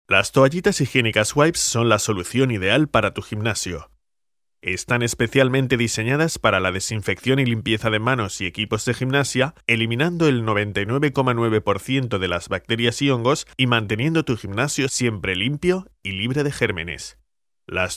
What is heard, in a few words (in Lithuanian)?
Ispanu balsas, vyras